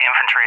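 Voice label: "infantry", English; USA; DCS; Allied Flight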